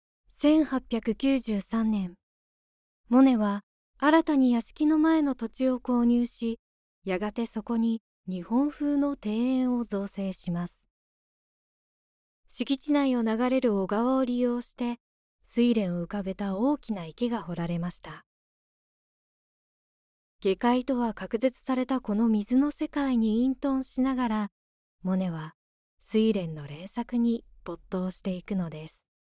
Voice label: female